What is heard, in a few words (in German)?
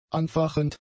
"anfachend", German; adjectives